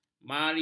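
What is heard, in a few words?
"mari", Malay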